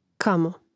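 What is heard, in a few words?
"kamo", Croatian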